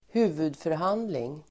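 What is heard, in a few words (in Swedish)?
"huvudförhandling", Swedish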